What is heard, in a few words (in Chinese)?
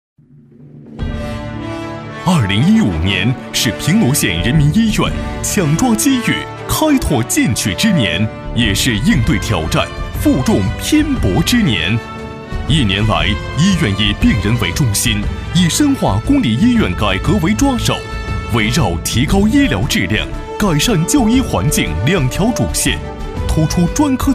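男国大音-专题片试音; 平罗县人民医院2015年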